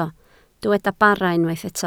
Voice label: "bara", Welsh